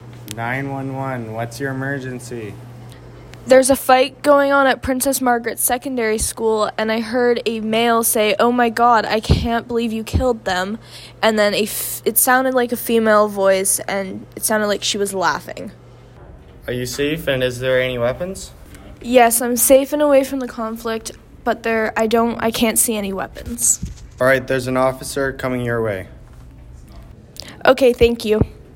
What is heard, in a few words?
911 call